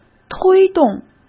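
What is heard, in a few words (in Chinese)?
"推动" Mandarin Chinese; chinese-flashcards; hsk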